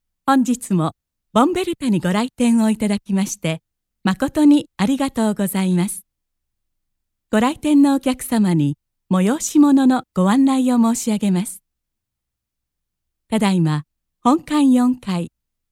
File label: japanese female